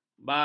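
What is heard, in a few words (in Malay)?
"balar", Malay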